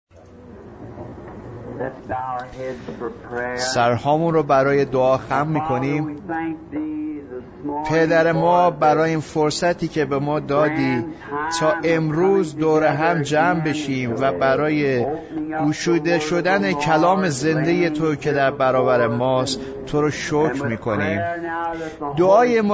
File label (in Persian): Farsi Voice Over; How Can I Overcome